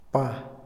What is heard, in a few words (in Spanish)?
"día", Otomí, hombre; INALI